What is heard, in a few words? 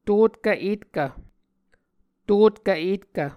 "totkv-etkv", muscogee